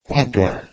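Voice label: "quattuor", Latin; kwatwor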